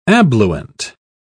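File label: "abluent", British English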